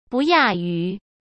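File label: "不亚于", Mandarin Chinese; hsk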